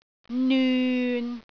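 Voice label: "nyun6", Cantonese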